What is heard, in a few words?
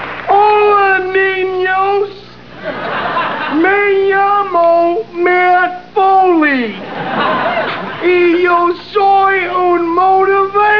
spanish